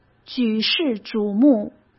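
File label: "举世瞩目", Mandarin Chinese; hsk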